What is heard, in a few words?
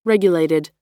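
"regulated", US English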